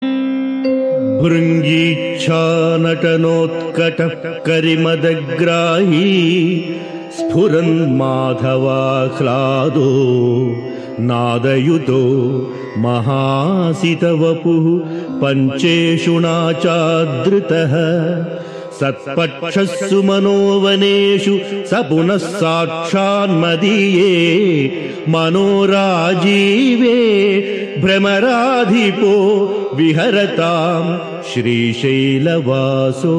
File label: Sanskrit; Sri Adi Sankaracharyas Sivananda Lahari; Adi Shankaracharya; श्रीशिवानन्दलहरी ५१